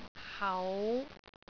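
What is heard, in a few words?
"hau5", Cantonese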